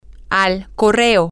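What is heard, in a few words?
Spanish, to the post office, female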